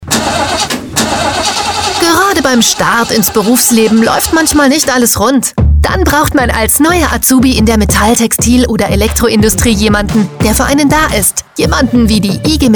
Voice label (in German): Funkspot